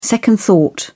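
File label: "second thought", British English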